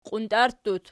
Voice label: "qiuntarttut", Archi